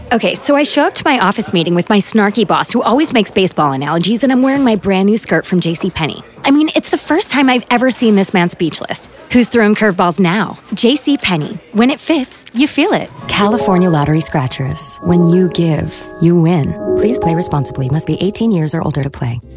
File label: commercial, commercial women